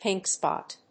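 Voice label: "pink spot", English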